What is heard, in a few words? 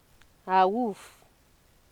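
"awuf", Nigerian Pidgin, female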